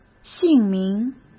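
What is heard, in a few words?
"姓名", Mandarin Chinese; hsk; chinese-flashcards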